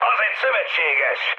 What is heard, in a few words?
player friendly fire, mood high, speech hun